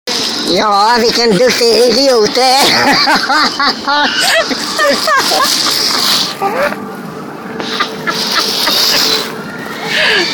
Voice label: helium